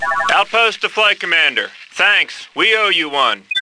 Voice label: Outpost, Computer Voice; X-COM